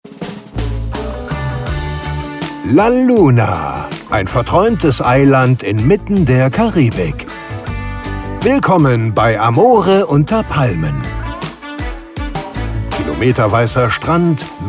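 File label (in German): Sueffisant, Werbesprecher, male; deutscher Werbesprecher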